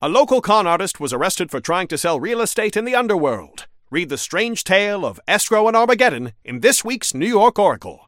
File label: Newscaster headline